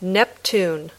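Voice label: "Neptune", English word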